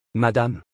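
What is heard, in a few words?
"madame", French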